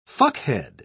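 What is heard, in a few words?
"fuckhead", British English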